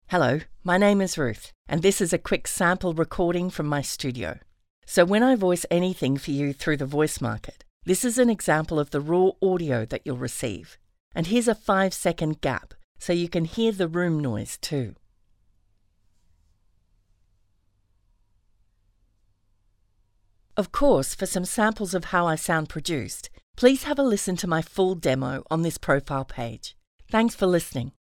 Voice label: Studio Sound Check

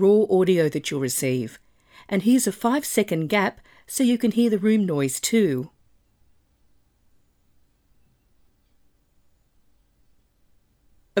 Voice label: Studio Sound Check

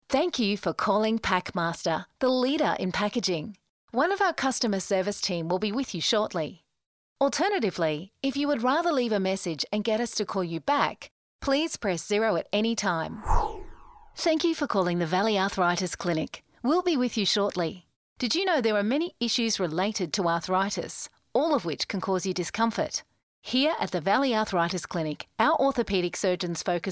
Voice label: On Hold

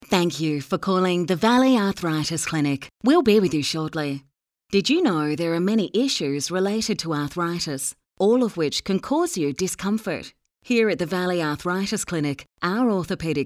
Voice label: On Hold

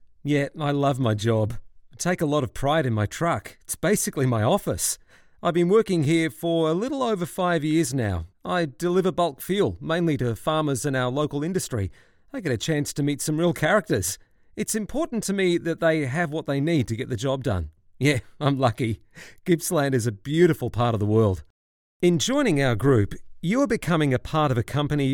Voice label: Conversational

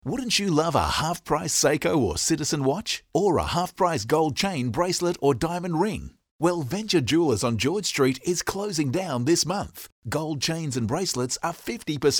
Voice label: Retail Friendly

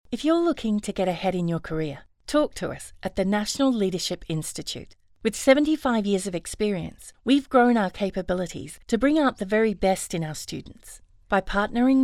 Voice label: Relaxed

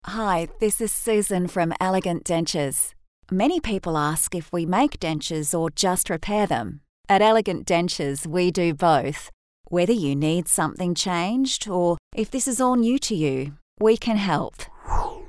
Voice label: Natural

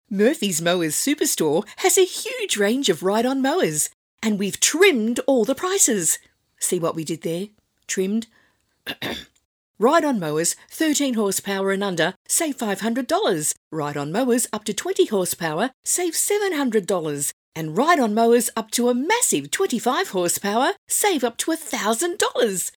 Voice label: Hard Sell

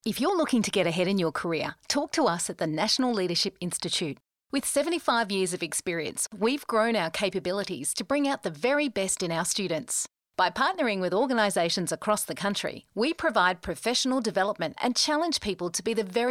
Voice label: Corporate Friendly

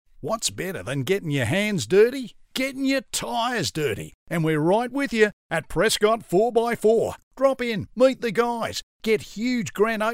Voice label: Tradie Aussie

